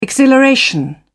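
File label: "exhilaration", British English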